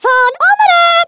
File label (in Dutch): "incoming", Dutch